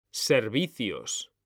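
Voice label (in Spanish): "servicios", Spanish; mekanta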